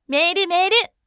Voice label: voice mail